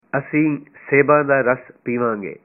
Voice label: punjabi we will drink apple juice